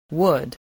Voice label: "would", voice, english